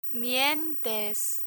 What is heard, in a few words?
"mientes" Spanish